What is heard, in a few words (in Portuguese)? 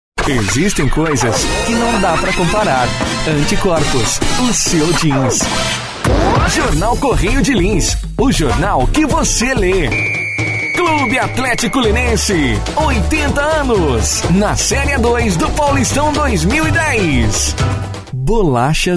locutor, vozes masculina